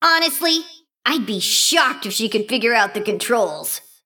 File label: Female Mecha Gnome